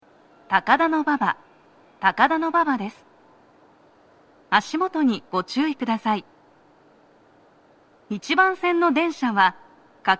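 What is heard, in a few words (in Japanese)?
到着放送, 女声, 足元注意喚起放送が付帯されており, 各駅停車 妙典行き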